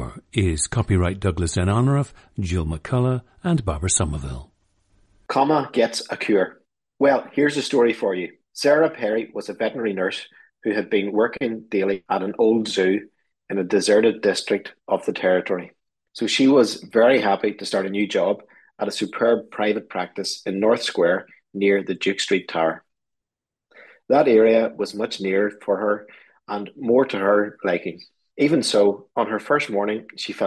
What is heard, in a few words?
northern ireland